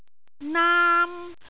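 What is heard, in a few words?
"naam1", Cantonese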